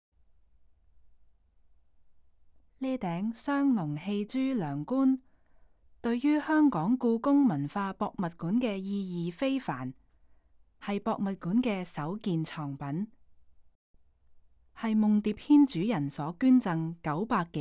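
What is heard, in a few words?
Cantonese, audio guide; 雙龍戲珠紋樑冠; 明（1368–1644年）; 金鑲紅寶石; 香港故宮文化博物館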